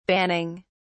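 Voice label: "banning", British English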